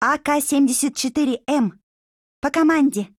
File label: hello, JP; AK74M; 少女前线